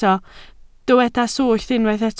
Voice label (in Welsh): "swllt", Welsh